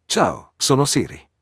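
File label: Italian, Italy; iOS Sounds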